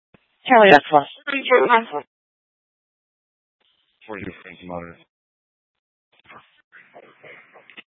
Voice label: Phx PD Dispatch, scanner; A05 500 Central City Prec; C- Chandler